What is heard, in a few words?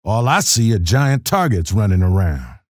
chatter neutral; keel